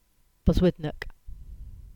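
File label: "Boswednack", Cornish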